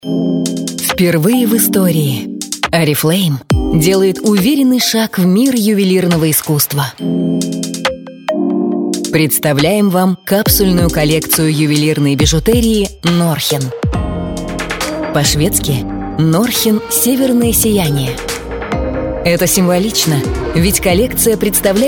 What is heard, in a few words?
russian voice over female narrator